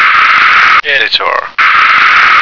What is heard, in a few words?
"editor", American